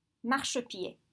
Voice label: "marchepied", French